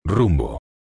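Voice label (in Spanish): "rumbo", Spanish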